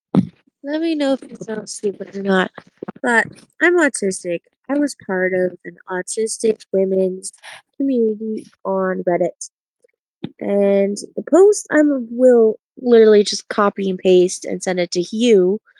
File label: voice message; Discord; Outcast Gaming